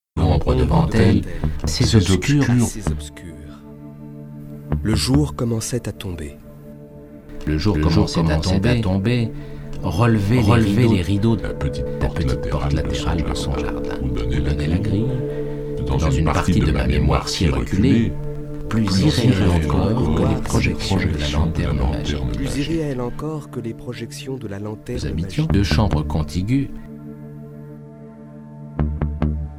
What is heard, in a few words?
podcast